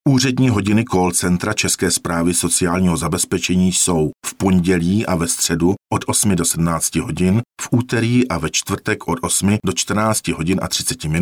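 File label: male Czech IVR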